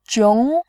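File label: "jiong", Mandarin, second tone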